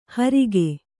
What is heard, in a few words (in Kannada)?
"ಹೋರ್ಕೆ", Kannada